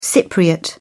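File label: "cypriot", British English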